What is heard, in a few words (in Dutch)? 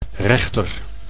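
"rechter", Dutch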